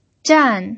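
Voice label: "zhan" Mandarin, fourth tone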